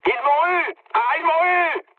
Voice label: aircraft destroyed mood med, speech fr